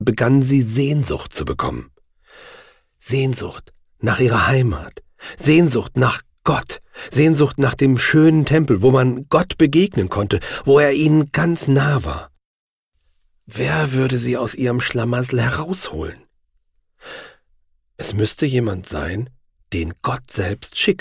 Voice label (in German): Erzaehlung